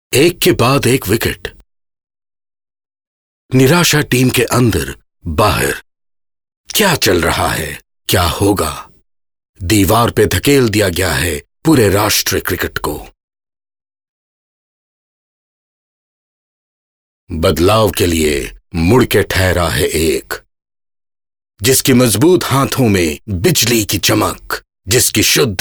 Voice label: vox hindi

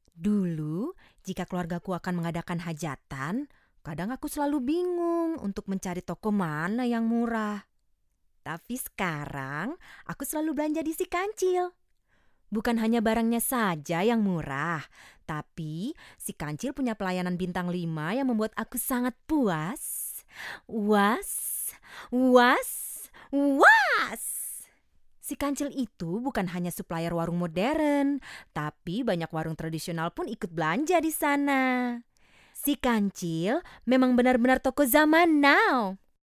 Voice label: Promo; Si Kancil; Ecstatic

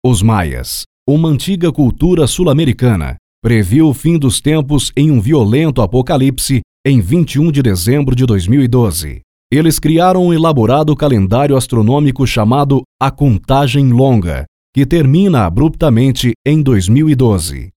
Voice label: Documentary PT-BR

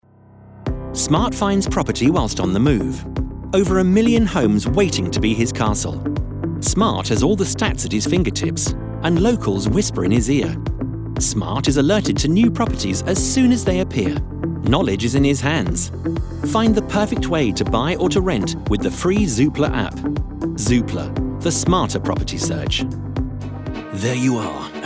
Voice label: COMMERCIAL

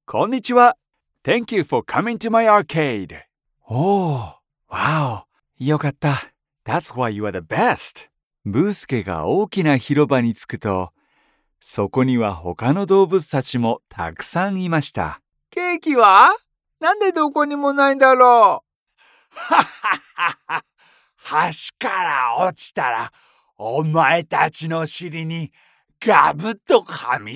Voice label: character

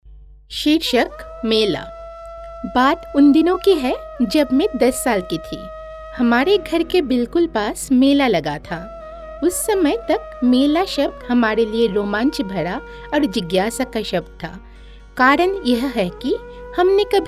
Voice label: Hindi Audiobook

